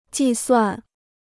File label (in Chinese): "计算", Chinese